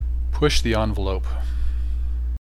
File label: pronunciation en push the envelope